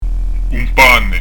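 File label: "un pane", Corsican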